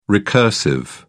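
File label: "recursive", British English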